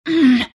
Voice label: temp pain; templar assassin